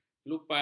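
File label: "kelupas", Malay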